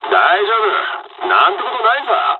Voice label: dialogs japanese; War Thunder